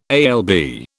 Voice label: "alb", British

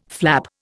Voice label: "flap", Indian

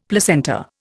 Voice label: "placenta", Indian; Dictionary

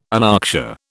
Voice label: "anoxia", British

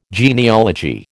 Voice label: "genealogy", American

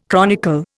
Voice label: "chronicle", Indian

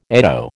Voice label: "eddo", American

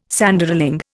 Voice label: "Sanderling", Indian

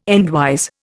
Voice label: "endwise", Indian

